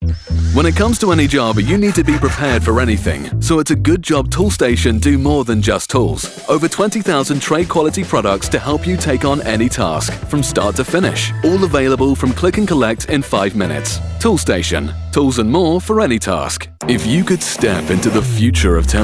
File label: Commercial, male voices